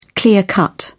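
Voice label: "clear cut", English, uk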